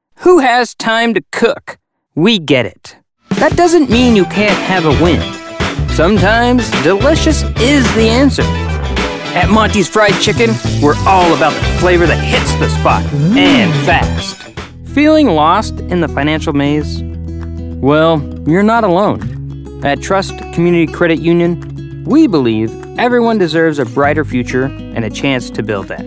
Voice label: Commercial, Warm, Caring & Authentic